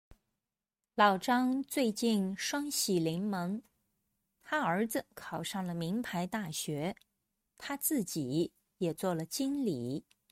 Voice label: denoised